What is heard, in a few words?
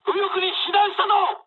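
right wing mood high, speech japanese; War Thunder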